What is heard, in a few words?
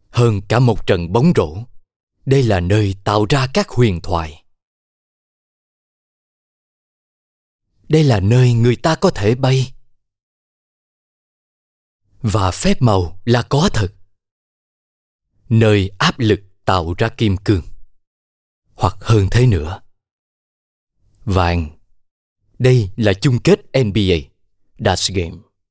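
Vietnamese Voice Sample Male South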